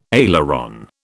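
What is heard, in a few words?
"aileron", British English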